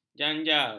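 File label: "janjar", Malay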